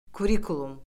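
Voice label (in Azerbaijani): "kurikulum", Azerbaijani; Orfoqrafiya Lüğəti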